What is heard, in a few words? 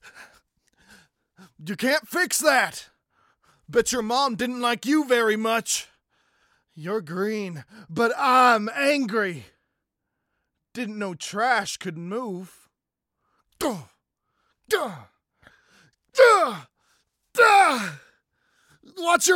Recruit Male